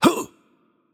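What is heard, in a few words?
German, attack light; de DE; VO; bloom minigame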